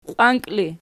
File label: "qiwanki", Archi